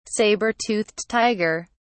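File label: "saber-toothed tiger", British English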